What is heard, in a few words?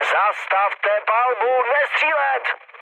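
player friendly fire, Czech, mood med; War Thunder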